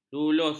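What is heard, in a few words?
"lulus", Malay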